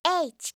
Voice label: "h", alphabet